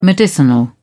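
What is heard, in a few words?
"medicinal", English, British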